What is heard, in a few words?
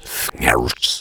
strength, voiceover reversed